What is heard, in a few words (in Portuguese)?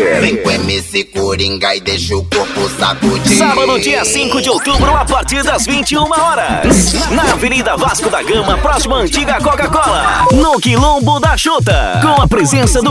Vinhetas de Festas Funk - Chamada de Festa